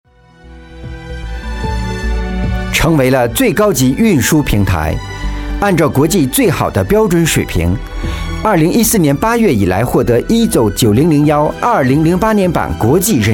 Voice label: chinese voice over male documentary

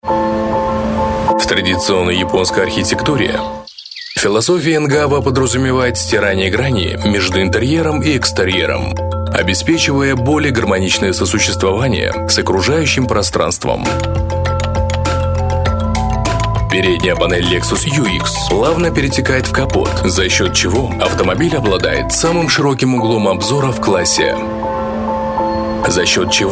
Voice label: russian voice over male narration

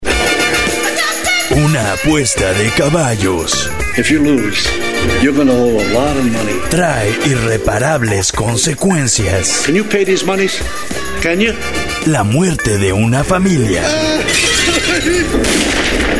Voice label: spanish voice over male commercial

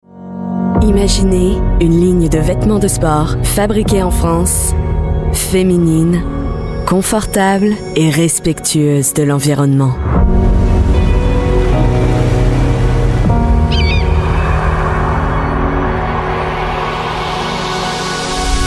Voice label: french voice over female commercial

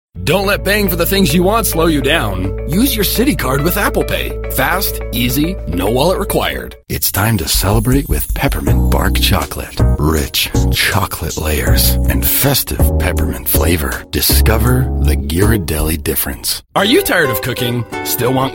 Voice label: english american voice over male

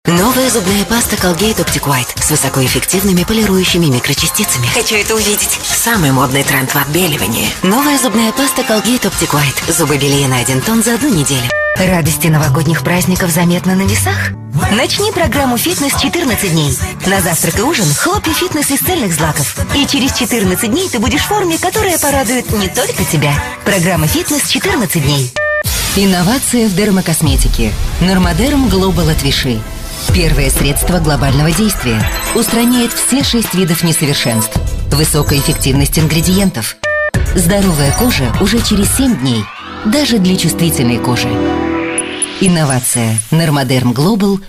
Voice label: russian voice over female commercial

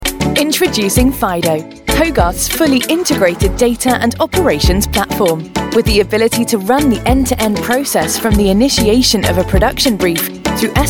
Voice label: english british voice over female narrator

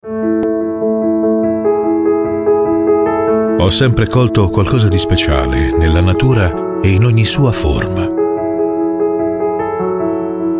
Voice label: italian voice over male documentary

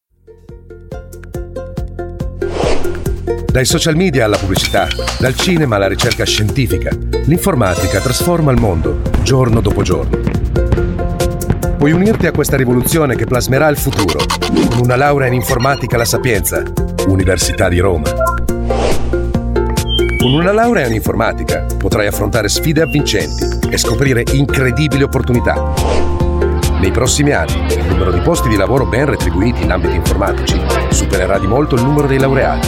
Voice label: italian voice over male narrator

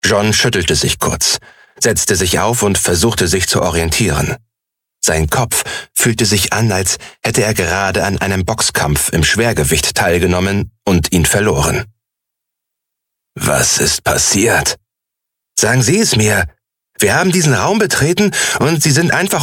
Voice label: german voice over male audiobook

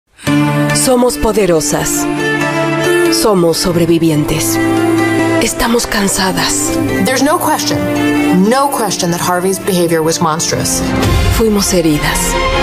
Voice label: spanish voice over female documentary

